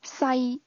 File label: "small", cantonese; adjectives